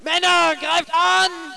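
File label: german attack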